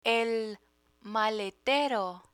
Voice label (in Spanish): "maletero", Spanish